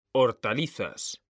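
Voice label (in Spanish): "hortalizas", Spanish, male; mekanta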